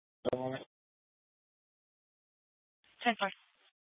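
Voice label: police department dispatch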